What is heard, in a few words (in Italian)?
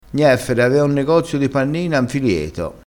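"pannina", dialettale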